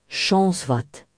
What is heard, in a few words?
good luck, Breton; Chañs vat!